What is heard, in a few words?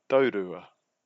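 "taurua", Māori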